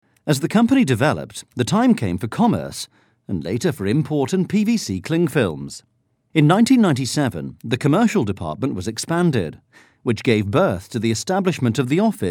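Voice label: English UK, male, narration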